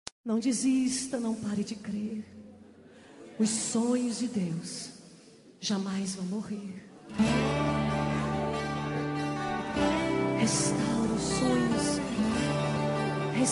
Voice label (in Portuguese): neutra gospel, Aniversário Pessoa Especial Gospel – Voz Feminina